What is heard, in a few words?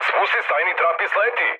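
Serbian bailout mood med, speech; War Thunder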